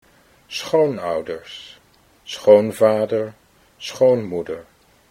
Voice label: "schoonouders schoonvader", Dutch